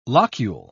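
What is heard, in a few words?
"locule", English, British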